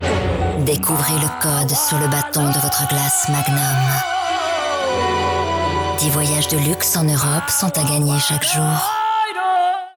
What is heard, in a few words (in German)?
Werbung FR CH, flippig frech verspielt